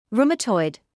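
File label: "rheumatoid" English, British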